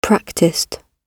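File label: "practised", British English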